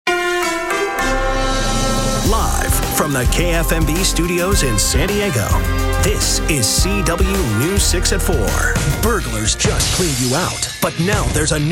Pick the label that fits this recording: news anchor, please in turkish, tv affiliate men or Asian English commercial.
tv affiliate men